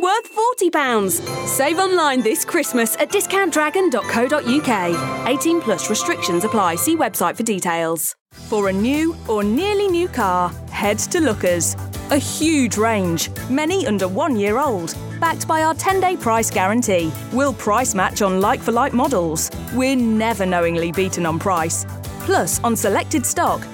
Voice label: brand portfolio, West Midlands Voiceover